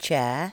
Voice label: "chair", english

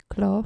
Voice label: "claw", dutch

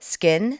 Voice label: "skin" english

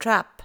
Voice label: "trap", english

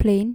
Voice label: "plein", Dutch

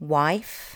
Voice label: "wife" english

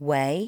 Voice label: "way", L2 English

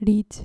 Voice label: "lead", dutch

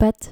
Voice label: "pet", Dutch

